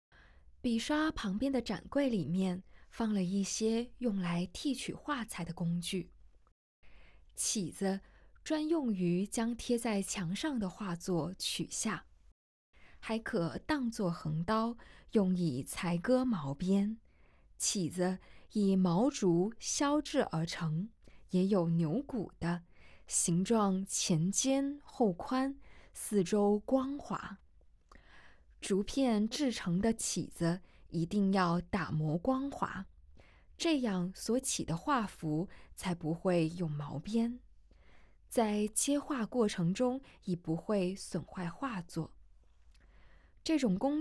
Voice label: Putonghua; audio guide